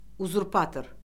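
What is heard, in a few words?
"uzurpator", Azerbaijani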